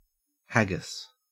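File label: "haggis" Australian English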